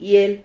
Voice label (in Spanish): "hiel", Silabas